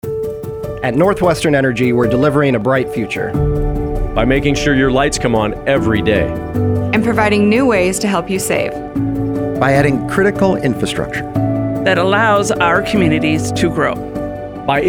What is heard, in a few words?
Radio Spot; 2022 Company Anthem